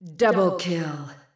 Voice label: "double kill", female

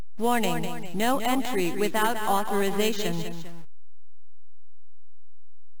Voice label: top secret announcer; mesa facility

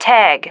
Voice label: "tag", female

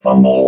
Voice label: "fumble", vox robot

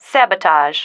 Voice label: "sabotage", female

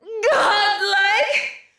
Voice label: "godlike", female

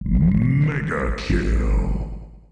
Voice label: "mega kill", male

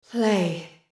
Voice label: "play", female

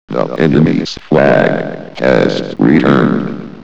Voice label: enemy flag return, radio

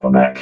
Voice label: "quebec", vox robot

